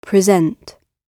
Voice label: "present", English